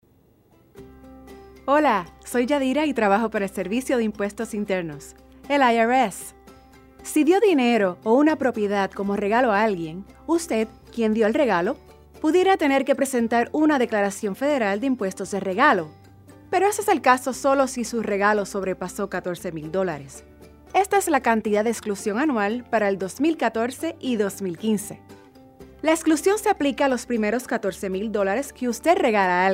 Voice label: Gift Tax Spanish